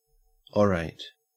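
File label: "orate", Australian English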